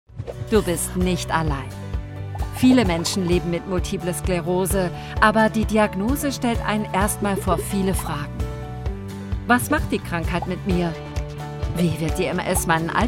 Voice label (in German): erklaerfilm empathisch warm; msbegleiter